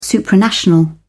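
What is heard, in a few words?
"supranational", British English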